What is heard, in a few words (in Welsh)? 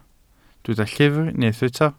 "llyfr" Welsh